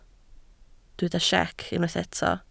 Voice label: "siec", Welsh

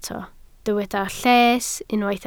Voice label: "lles", Welsh

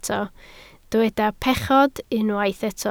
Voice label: "pechod", Welsh

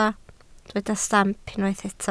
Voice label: "stamp", Welsh

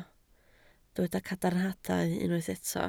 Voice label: "cadarnhadau", Welsh

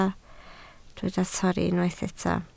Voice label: "torri", Welsh

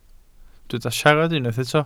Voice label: "siarad", Welsh

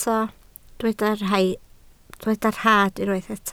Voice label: "rhad", Welsh

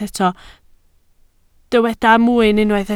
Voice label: "mwyn", Welsh

